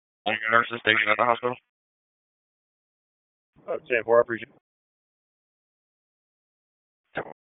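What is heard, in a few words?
Police Northeast District Dispatch, p25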